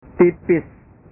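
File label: "tipis", Indonesian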